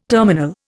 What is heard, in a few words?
"terminal", Indian